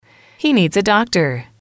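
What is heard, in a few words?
"he needs a doctor", English, female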